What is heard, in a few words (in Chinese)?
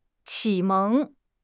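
"启蒙", Chinese; HSK6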